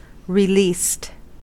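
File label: "released", American English; pronunciations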